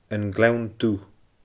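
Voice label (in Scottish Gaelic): "An Gleann Dubh", Scottish Gaelic; ainm-àite fir.; Gleann Dubh (Highld); Glen Dhu (D&G); Black Glen (NS; Glenville (NS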